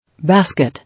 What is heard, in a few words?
"Basket", English